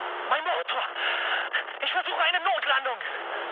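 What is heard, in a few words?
German damaged engine mood high, speech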